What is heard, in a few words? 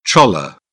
"challah", British; ɑː - ɒ; English